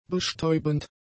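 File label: "betäubend", german; adjectives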